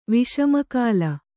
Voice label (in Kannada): "ವಿಷಮಕಾಲ", kannada